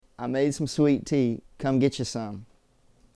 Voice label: Southern American English, I made you some sweet tea. Come get you some.; Come and get some.